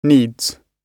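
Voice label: "needs", British English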